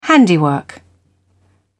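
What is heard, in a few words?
"handiwork", British English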